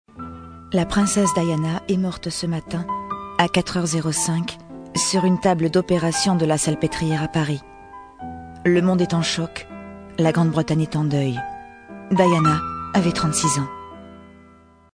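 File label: Journalistic Dramatic, French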